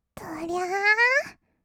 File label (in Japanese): "どりゃあー", kawaii voice; ASMR; 色々なワンショット